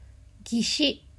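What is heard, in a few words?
"gishi", Japanese; ギシッ – gishi; manga onomatopoeia sound effects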